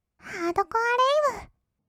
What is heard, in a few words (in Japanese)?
ハードコアレイヴ, kawaii voice, 音楽ジャンル; ASMR